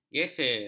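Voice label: "gesel", Malay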